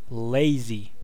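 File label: "lazy", US English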